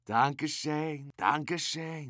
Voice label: Medic positive vocalization

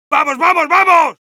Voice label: heavy go, es

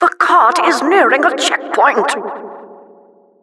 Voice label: Announcer cart defender warning

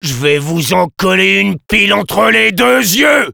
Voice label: sniper taunts, fr; "J'vais vous en coller une pile entre les deux yeux !"

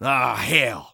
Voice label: Engineer negative vocalization

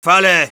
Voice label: yes, es; Scout; "¡Vale!"

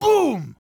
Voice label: Heavy meleeing, de

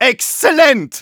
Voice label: cheers, de; "Exzellent!"; Medic

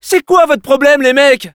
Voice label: jeers fr; Scout

